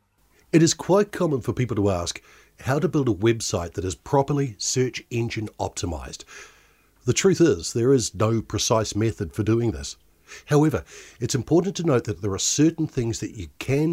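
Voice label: narration, Recorded voice-overs, male, English speaking, New Zealand Voice; narration demo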